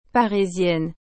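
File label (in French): "parisienne", French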